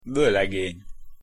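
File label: "volegeny", Hungarian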